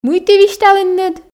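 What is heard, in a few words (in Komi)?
мый ті висьталінныд, Komi, female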